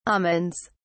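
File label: "almonds", British English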